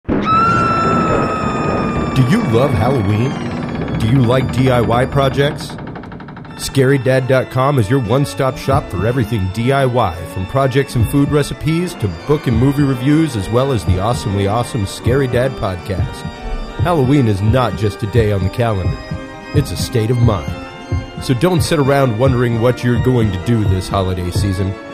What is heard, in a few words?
sponsor spot; SCARY DAD